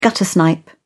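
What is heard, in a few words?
"guttersnipe", British English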